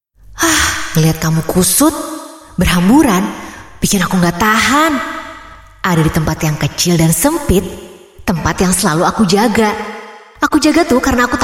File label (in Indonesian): Bahasa Indonesia Voice Sample